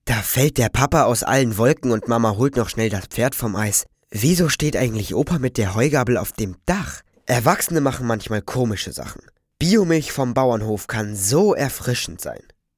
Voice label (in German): Jungen; BioMilch